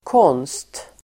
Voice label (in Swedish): "konst", swedish